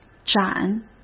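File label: "斩", Mandarin Chinese; hsk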